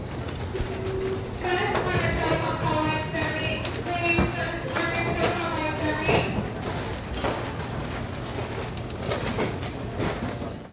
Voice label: store paging